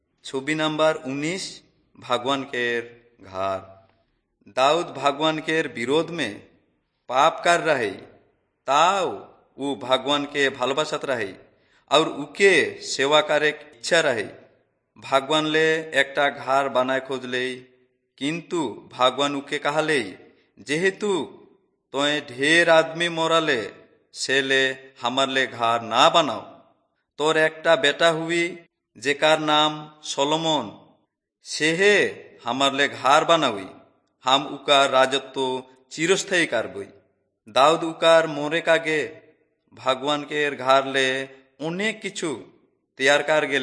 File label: servants of god urao, kurukh drama